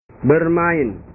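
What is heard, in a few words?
"bermain", Indonesian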